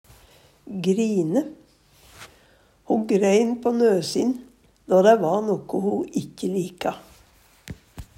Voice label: grine, Numedalsmål

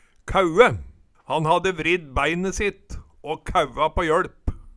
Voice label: kæue, Numedalsmål

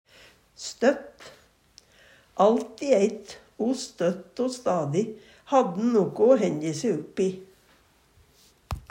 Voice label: støtt, Numedalsmål; Adverb

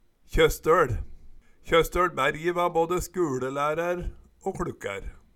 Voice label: "KjøstøL", Numedalsmål; Tjostolv

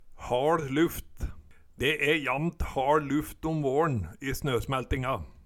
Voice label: haL luft, Numedalsmål

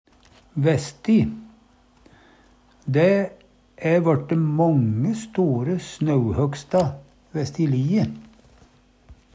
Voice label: vessti, Numedalsmål; Dæ æ vørte månge store snauhøgsta vessti lie.; Adverb

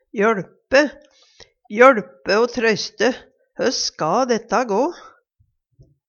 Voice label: joLpe, Numedalsmål

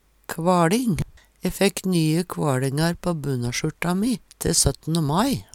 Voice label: "kvaLing", Numedalsmål

